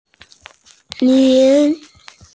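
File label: "min2", Mandarin Chinese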